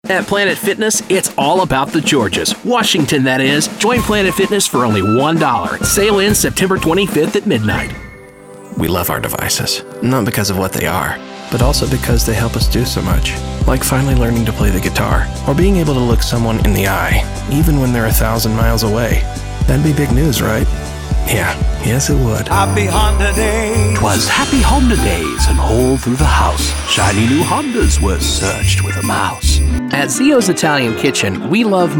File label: Male Voice Over Talents Commercial